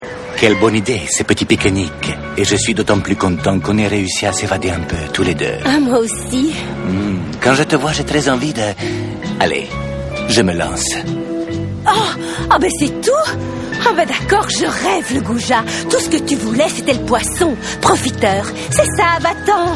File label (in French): Mouette, comedie